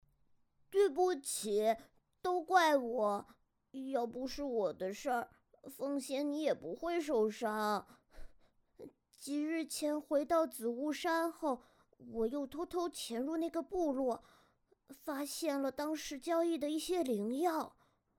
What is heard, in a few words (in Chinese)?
正太 呆萌